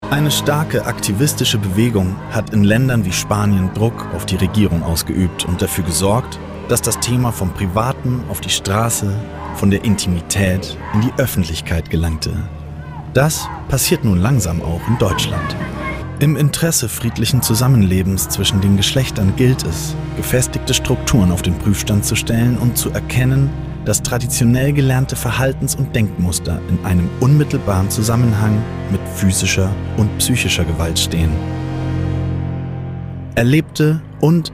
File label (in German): TV Doku; Frauenmorde